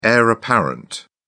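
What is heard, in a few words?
"heir apparent", British English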